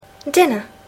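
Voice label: "dinner", English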